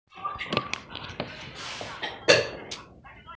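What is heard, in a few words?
"liwu", Mandarin Chinese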